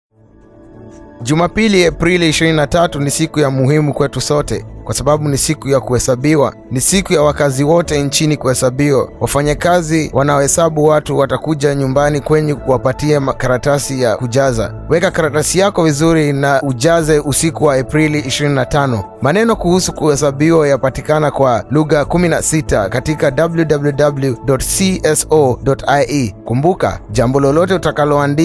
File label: Swahili; census